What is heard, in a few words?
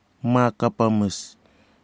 birds Mackinlays cuckoo dove, Kwamera